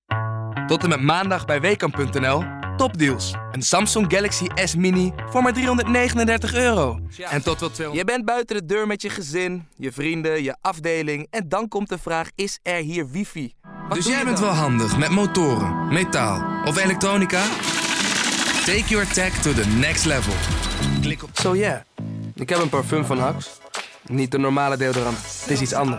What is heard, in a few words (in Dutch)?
Commercial